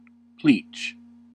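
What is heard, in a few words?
"pleach", American English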